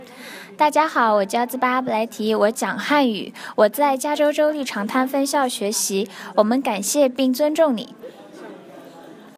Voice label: Mandarin